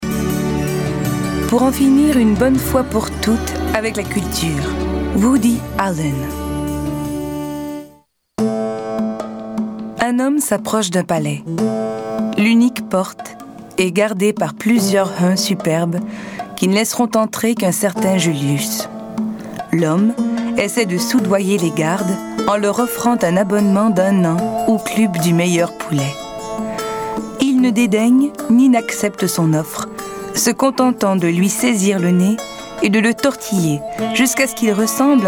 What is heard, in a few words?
narration